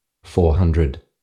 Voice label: visibility 400, aus